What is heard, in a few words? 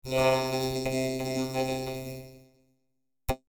vocoder voice; XILS-Lab; Vocoder 5000